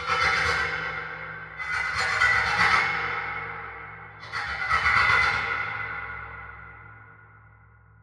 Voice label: Alien Talking Communication; Mechanical Wave; Sci-Fi-Invaders; GDC Game Audio Bundle